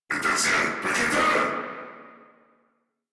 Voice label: Player Spotted, Battle Barks